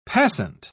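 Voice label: "passant", British English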